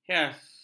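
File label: "hias", Malay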